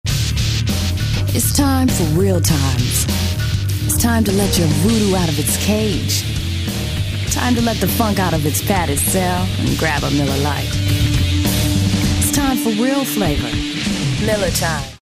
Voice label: attitude

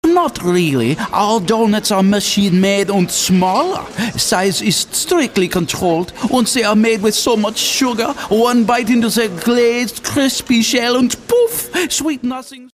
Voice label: german

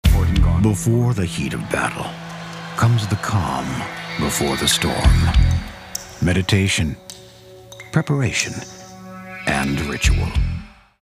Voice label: movie trailer

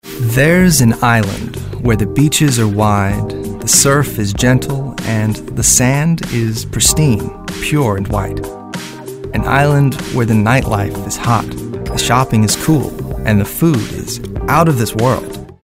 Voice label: Smooth

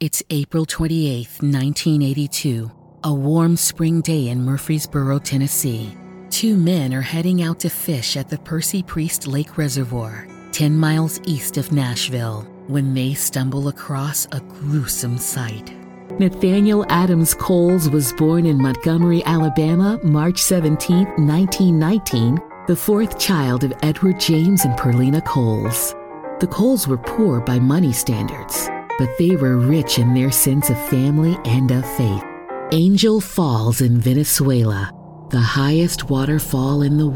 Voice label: Narration

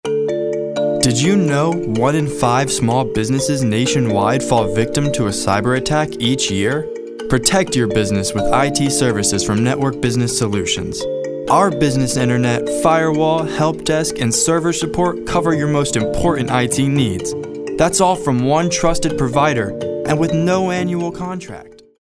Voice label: compelling

